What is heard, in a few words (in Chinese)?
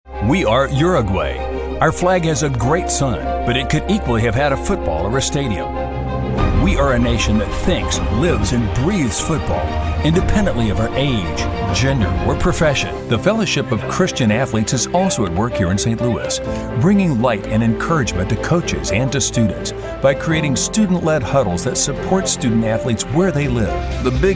大气深沉